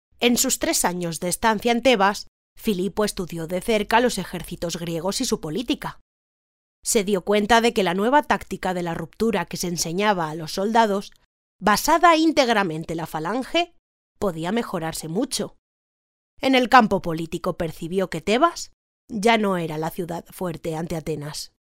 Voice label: locutora spanish voiceover